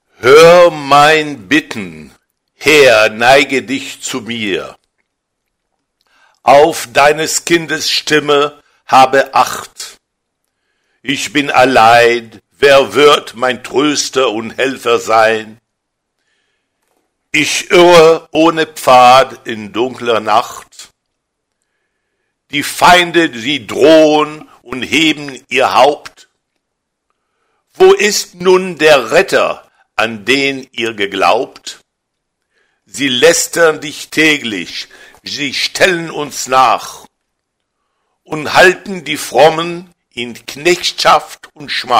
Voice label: german pron